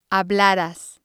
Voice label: "hablaras", spanish